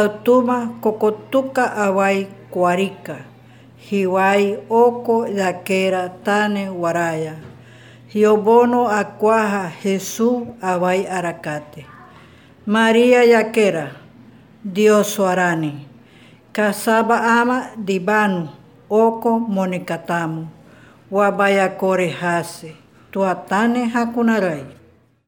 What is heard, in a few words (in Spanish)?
ave maria en warao